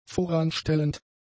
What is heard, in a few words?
"voranstellend", German; adjectives